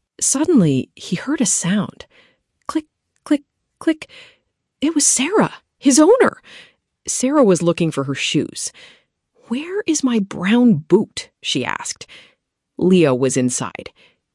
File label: narration; o gato no sapato; historia en A2